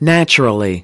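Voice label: "naturally", English; UNIT 5: HEALTHY LIVING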